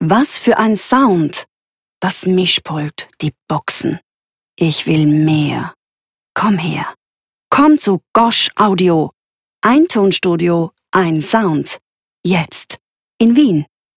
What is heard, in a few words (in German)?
Werbung zärtlich